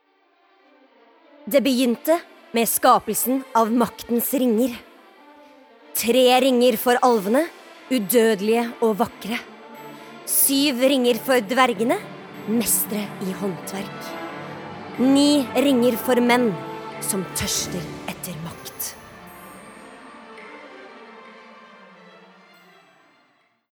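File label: no movie trailer, Norwegian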